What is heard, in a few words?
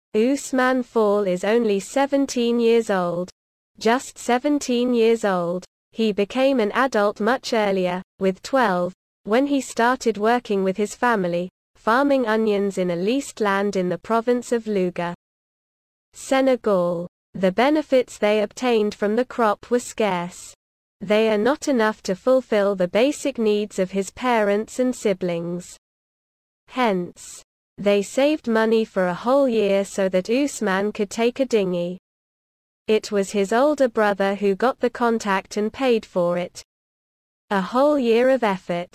audio english